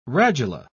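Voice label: "radula", British English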